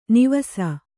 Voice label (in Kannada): "ನೇವಸ", kannada